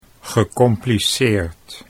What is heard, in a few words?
"gecompliceerd", Dutch